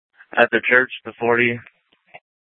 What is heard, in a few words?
Sheriff, scanner; Shrf Mnt (Parks)